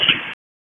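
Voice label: EMS DISP, radio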